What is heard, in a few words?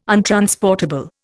"untransportable", Indian